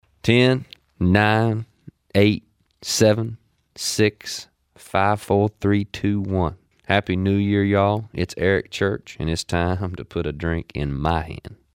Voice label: liner countdown